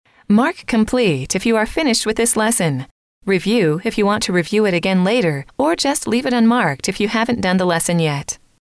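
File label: mark complete if you are finished with the lesson, female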